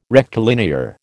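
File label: "rectilinear", American English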